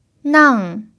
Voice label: "nang4", chinese pinyin